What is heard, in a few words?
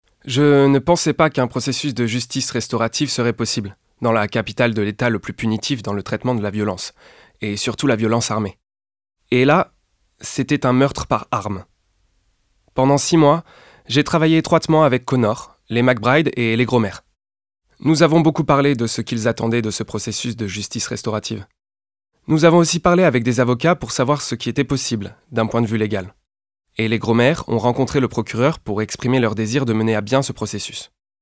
Voice Over